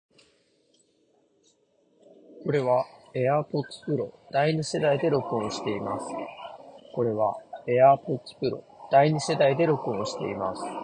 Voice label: voice airpods